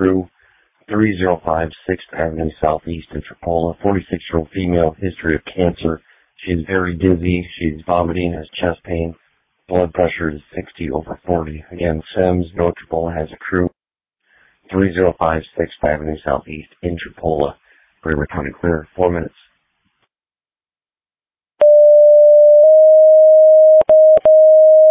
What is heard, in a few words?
Ambulance, dispatch; Denver